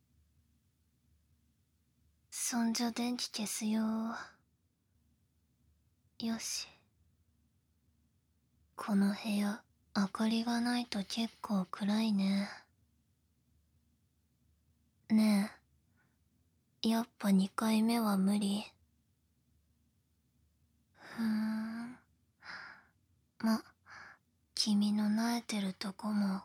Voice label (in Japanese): ピロートーク; ASMR; 叱られえっち; ギャル彼女に2回戦おねだりされる音声; 「あたしとシたいから家に誘ったんでしょ？」; SEなし